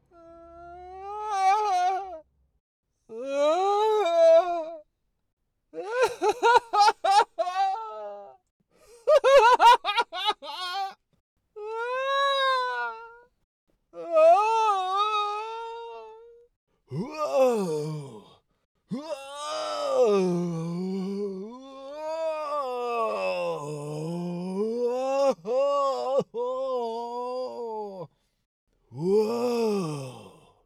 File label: Doplar Scream Cartoonish and Dude Bro Voice; Character Voice Demos